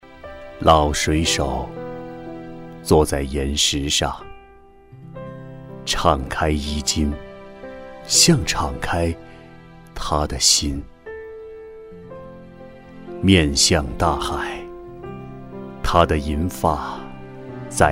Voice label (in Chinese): 男 专题 厚重